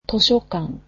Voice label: "library", japanese

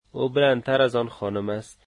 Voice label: he is taller than her, dari

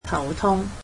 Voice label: "headache", Cantonese

